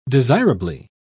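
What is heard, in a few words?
"desirably", British English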